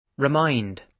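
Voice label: "remind", British English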